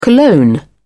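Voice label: "cologne", British English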